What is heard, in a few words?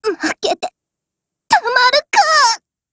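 Japanese, Voice; BREAK; GF Alarm; MicroUZI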